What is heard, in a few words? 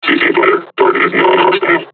taunt, combine charger; Half Life Alyx